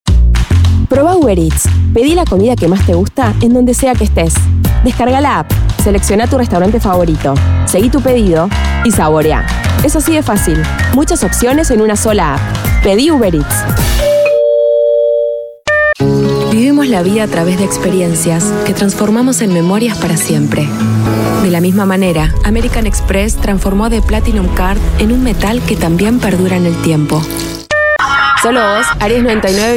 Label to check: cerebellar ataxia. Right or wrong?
Wrong